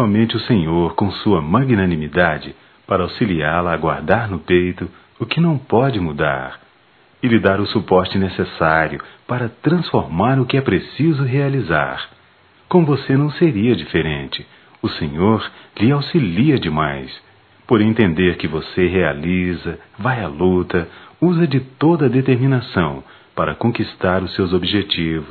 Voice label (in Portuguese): Dia da Mulher evang m, Só Voz, masc; Telemensagens; Telemensagens 2017